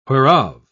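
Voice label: "whereof", British English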